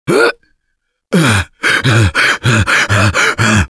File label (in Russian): defibrillator, left4dead2 russian, Survivor Voice